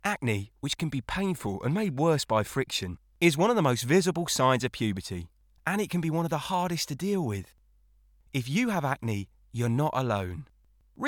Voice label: Acne Commercial